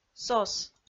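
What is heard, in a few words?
"сос", Komi-Zyrian, female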